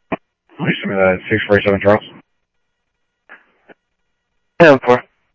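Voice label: Sheriff East County, scanner audio trunk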